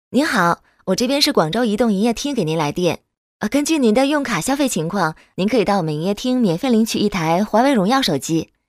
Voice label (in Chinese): 女 电话客服 银行客服 亲切年轻; 广州银行